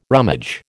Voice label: "rummage", American